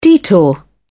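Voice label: "detour", British English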